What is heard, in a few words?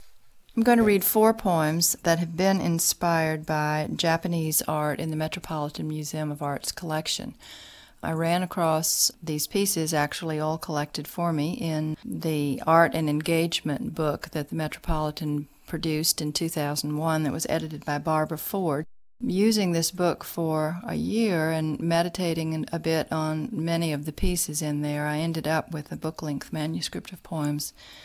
reading